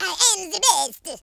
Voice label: "amazing", French; speech